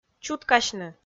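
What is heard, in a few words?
"чуткасьны", Komi, female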